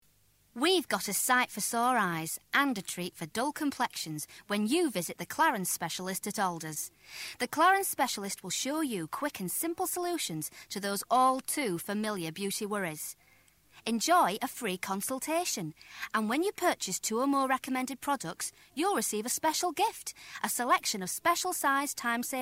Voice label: Commercial; Clarins